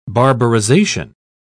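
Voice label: "barbarization", British English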